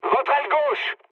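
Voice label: left wing, mood high, French; War Thunder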